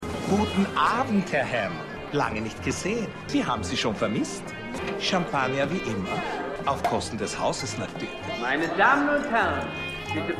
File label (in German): Ansager; Falling Water